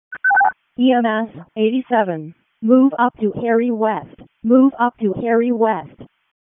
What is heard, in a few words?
EMS ALERT